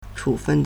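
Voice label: "chǔfèn", Chinese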